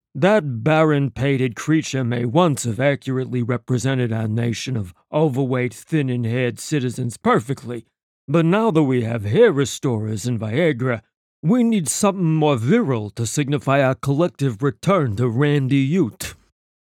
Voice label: size italian; ACCENTS & DIALECTS